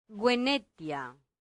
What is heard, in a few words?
"venetia", Latin; santillana